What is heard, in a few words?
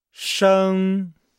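"sheng", Chinese; Nihao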